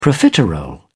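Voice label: "profiterole", British English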